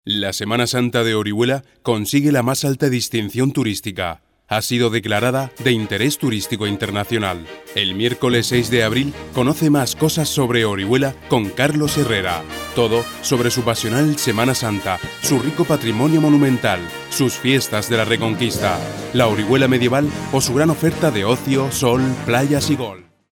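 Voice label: Spanish Spain, male, voice over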